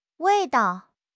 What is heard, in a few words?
"wei4 dao5", 汉语口语; 汉语口语新时代-初级下